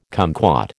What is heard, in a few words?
"kumquat", American